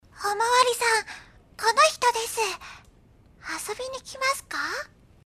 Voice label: 萝莉

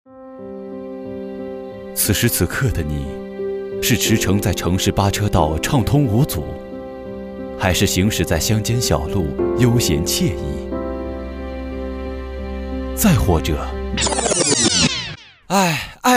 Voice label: 电台包装; 13.沉稳电台（电台，节目片头）

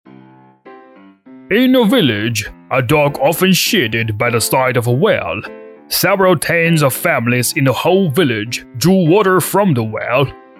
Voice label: 英文样音

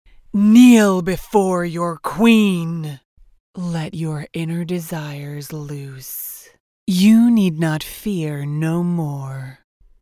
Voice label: 美式女英文游戏魅魔角色音

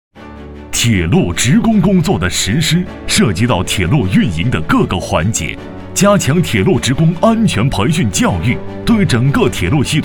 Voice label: 专题稳重叙述, 男配音, 中年（36-54）, 普通话, 浑厚; 聊城职工培训基地

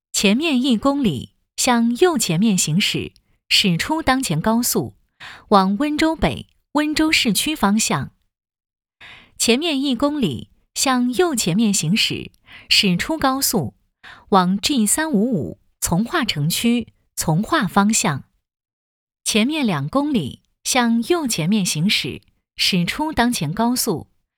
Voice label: 导航标准女中音; 高德

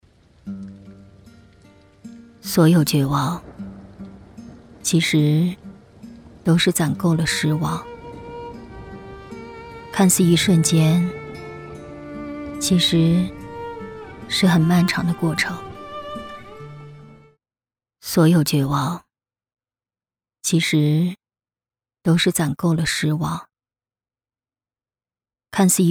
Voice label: 旁白绝望情绪

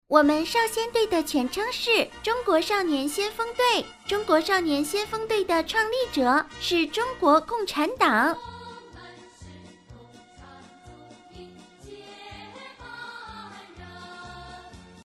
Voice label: 女童8岁

